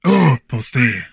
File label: humanoid male; Stellaris; humanoids